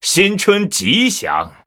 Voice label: Male Blood Elf HAPPY NEW YEAR LUNAR; zh